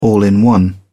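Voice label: "all in one", English, British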